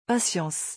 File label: "patience", French